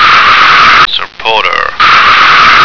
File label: "supporter", American